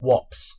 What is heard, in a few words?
"waps", Anglo Saxon